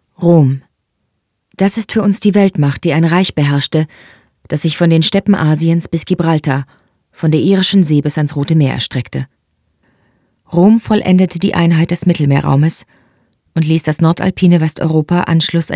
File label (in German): Dokumentation; LOFT VOICES